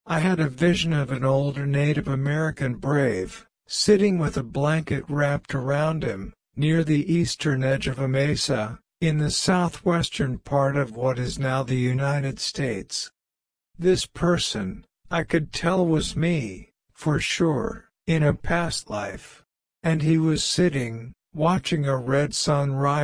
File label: hopi voice rate x slow pitch x high